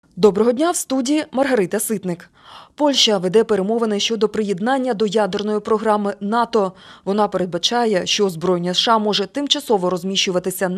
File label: Ukrainian Evening News